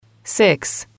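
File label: "six", English, female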